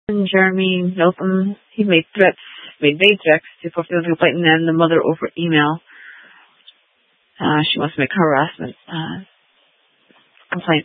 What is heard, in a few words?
Police Dispatch; Phoenix Police; A04 400 South Mt Prec; Simulcast C- Chandler